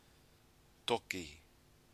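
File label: "toki", Maori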